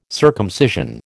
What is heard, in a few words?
"circumcision", American